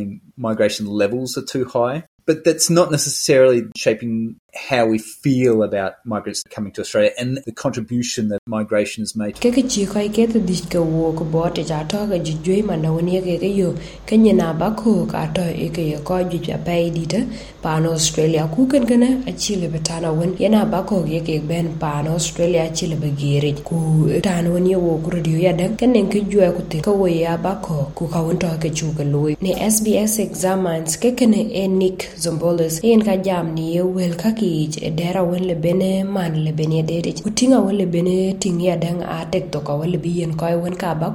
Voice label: dinka, podcast; Kɔc juëëc Australians aye ke tïŋ köök alëŋ ka pɛ̈th yeen. Lëŋ ke ci anyïköl tɛ leer baai tuëŋ waar?; SBS Dinka